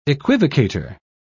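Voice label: "equivocator", British English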